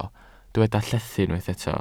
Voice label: "llethu", Welsh